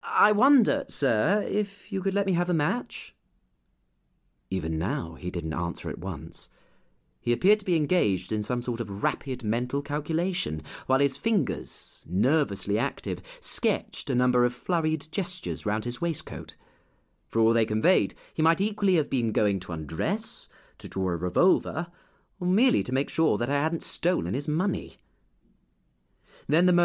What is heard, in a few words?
Audiobook Historical Fiction